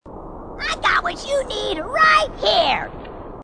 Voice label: grunt; h2dialogue; grunt crazy